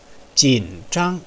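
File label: "jinzhang", Chinese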